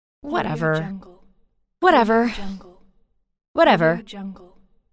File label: woman says whatever